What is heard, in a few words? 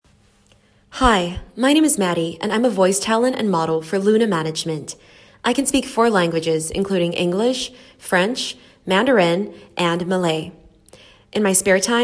English